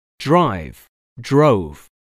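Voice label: "drive", English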